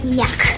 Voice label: "yuck", girl; Voice